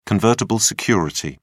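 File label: "convertible security", British English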